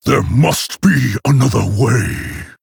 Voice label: Male Orc